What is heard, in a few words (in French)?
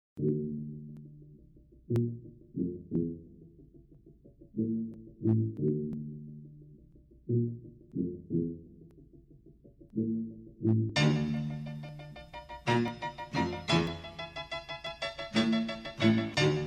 Test, trouver sa voix de rappeur